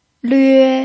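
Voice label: "lüe", first tone, Mandarin Chinese pinyin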